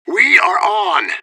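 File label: radio bot response attacking; fbihrt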